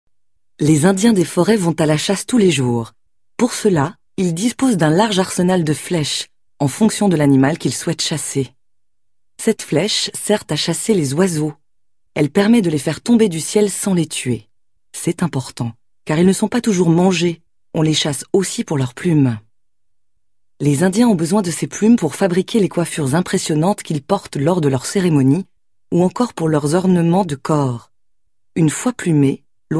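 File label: FR F narration, French, female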